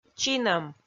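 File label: "чинӧм", Komi-Zyrian, female; kpv audio dict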